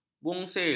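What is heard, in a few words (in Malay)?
"bungsil", Malay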